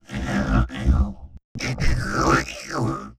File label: Dybbuk Conversation; Sony Pictures Sound Effects Series; Vocals - Humanoid